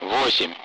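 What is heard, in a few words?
Speech Sound Russian Common Allied Flight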